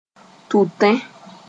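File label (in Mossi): "tʋtẽ", Mooré; pregnant (be)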